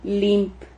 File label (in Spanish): "limp", Silabas